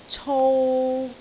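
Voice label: "cou3", Cantonese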